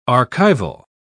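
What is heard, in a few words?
"archival", English, British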